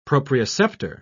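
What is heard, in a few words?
"proprioceptor", British English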